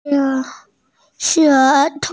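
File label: "che1", Mandarin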